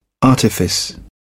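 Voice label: "artifice", English, British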